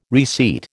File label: "reseat", American